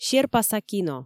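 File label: "серпаса кино", Komi, female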